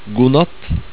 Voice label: "god natt", Norwegian

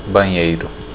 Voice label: restroom, portuguese; restroombr

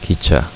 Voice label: train, korean

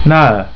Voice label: "Nahe", German

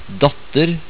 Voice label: "datter", Norwegian; word of day

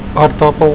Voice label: "aartappel", Afrikaans

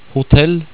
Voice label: "hotell", Norwegian

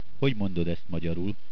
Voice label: How say, hungarian

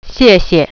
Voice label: "thank", Mandarin

